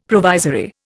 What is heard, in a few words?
"provisory", Indian; Dictionary